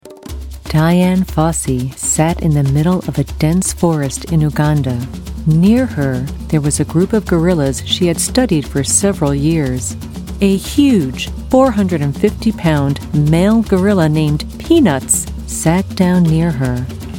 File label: Narration